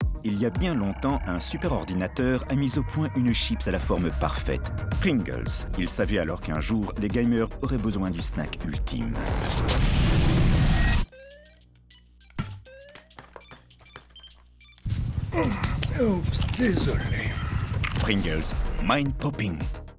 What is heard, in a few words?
Gaming FR TV; PRINGLES; GAMER